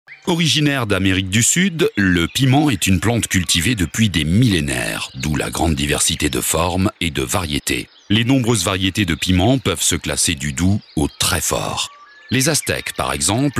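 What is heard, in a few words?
French, narration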